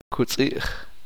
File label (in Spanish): flor, uspanteko